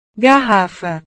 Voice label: "garrafa", Portugués; botella

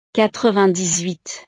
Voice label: "quatre-vingt-dix-huit", frances

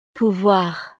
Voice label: "pouvoir", frances

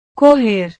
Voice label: "correr", Portugués

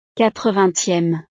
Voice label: "quatre-vingtieme", frances

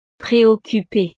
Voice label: "preoccupee", frances; estados animo

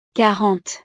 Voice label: "quarante", frances

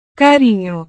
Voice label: "carinho", Portugués; cariño

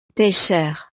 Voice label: "pecheur", frances; profesiones

